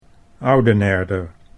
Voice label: "Oudenerder", Dutch